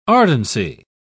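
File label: "ardency", English, British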